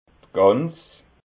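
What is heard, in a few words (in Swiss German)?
"gans", Alsatian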